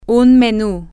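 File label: a menu, Spanish, female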